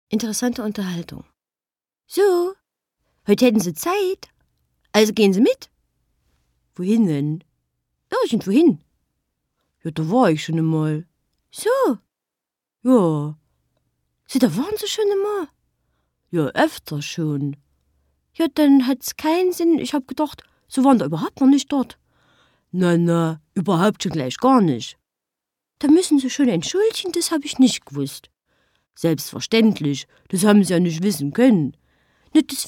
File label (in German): dialekt thueringisch